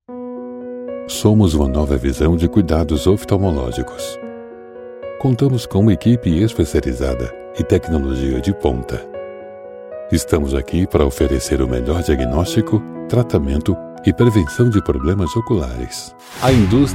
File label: portuguese brazilian commercial; Portugués (Brasil); Locutor brasileño, mi lengua materna es el portug